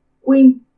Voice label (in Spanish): "Kuimu", seis, Purépecha; Números